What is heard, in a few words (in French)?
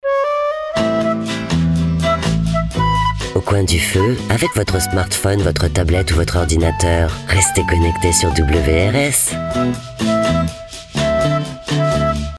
Radio Jingle; Coin du feu